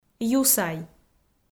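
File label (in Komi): "юсай" Komi, female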